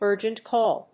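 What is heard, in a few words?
"urgent call", English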